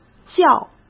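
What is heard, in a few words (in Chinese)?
"叫", Mandarin Chinese; hsk; chinese-flashcards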